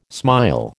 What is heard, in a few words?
"smile", American